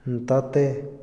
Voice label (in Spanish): "victoria", Otomí, hombre; INALI